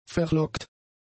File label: "verlockt", German; adjectives